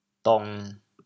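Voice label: "dong1", Teochew, chaoyang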